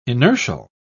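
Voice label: "inertial", British English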